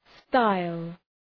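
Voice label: "style", English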